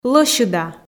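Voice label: "лӧсьыда", Komi, female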